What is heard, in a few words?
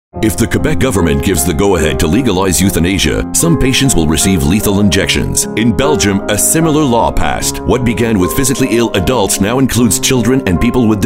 Radio Ad; Coalition MD; Bill 52 Quebec